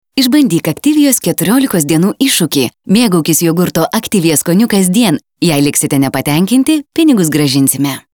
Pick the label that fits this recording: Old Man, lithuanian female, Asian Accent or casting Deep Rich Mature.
lithuanian female